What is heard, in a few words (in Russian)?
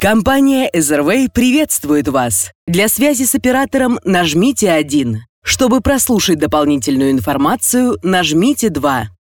Автоответчик пример без музыки